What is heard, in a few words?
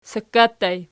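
sakka ergative plural, Archi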